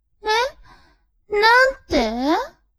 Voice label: woman